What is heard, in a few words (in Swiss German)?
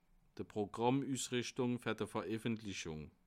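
"programm", Bas Rhin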